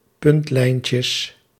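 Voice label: "puntlijntjes", Dutch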